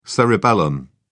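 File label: "cerebellum", British English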